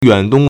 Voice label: "yuan3dong1", Chinese

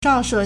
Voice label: "zhao4she4", Chinese

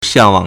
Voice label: "xiang4wang3", Chinese

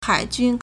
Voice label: "hai3jun1", Chinese

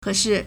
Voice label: "ke3shi4", Chinese

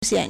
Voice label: "xian3", Chinese

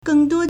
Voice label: "geng4 duo1", Chinese

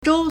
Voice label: "zhou1", Chinese

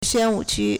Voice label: "xuan1wu3qu1", Chinese

